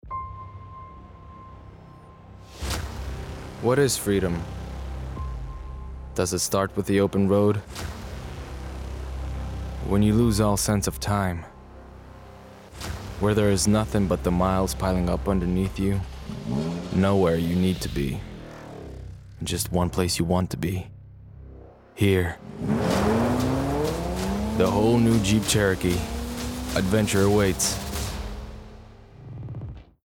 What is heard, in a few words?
VO Reel; Jeep